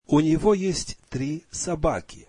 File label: russian he has three dogs